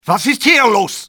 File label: whats happen, male; XBOX